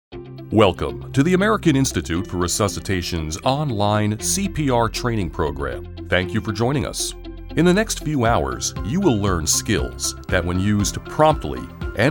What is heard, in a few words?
Narration, voiceovers